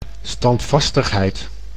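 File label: "standvastigheid", Dutch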